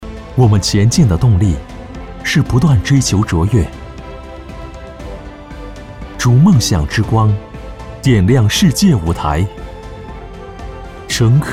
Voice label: 形象片温暖轻松; 中国银行

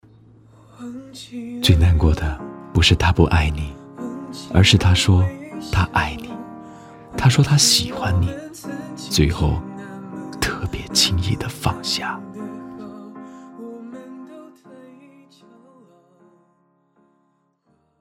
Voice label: 情感

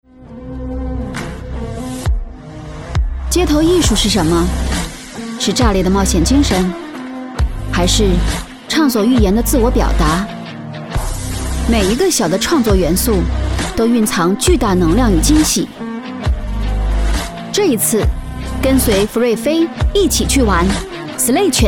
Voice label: 街头艺术酷玩风

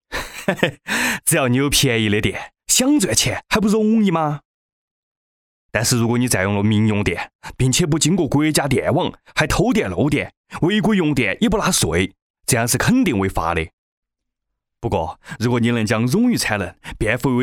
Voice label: 四川话角色男声

